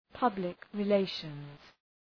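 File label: "public relations", English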